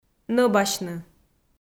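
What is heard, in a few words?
"нӧбасьны", Komi, female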